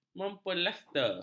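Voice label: "memplaster", Malay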